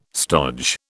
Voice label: "stodge", British